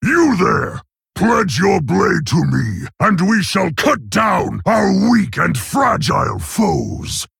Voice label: Male Orc Bark; Garrosh Hellscream

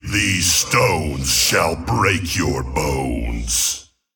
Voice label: Male Tol'vir Play

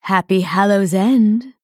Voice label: Female Dryad HAPPY HALLOWEEN

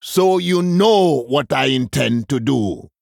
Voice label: Male Troll; Brukan; If Tamsin Half Health Plus One

